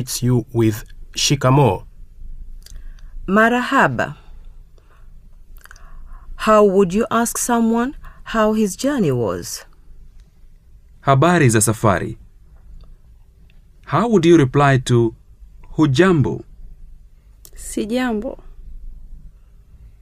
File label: Exercise, Swahili; Teach yourself Swahili audio